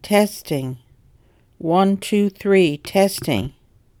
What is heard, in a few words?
Testing 123 stereo